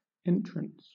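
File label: "entrance", British English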